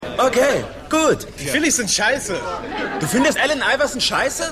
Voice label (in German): Schueler; The Wire